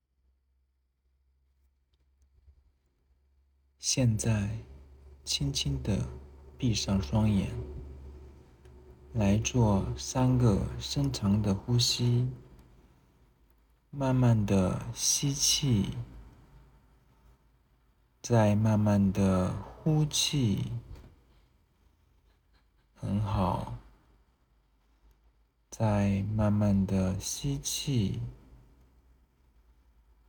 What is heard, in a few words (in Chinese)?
助眠